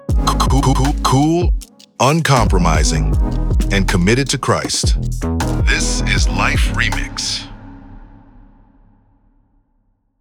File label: sweeper